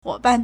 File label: "huo3 ban4", Chinese